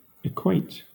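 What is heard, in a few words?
"equate", British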